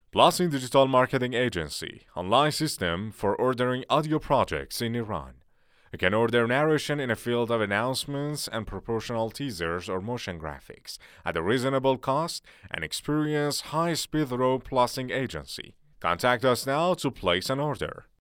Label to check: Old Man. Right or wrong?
Wrong